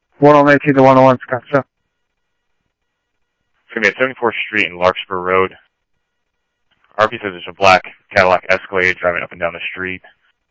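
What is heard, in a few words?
police department, scanner audio trunk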